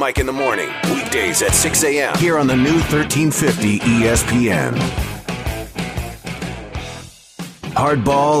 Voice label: Radio Imaging Sports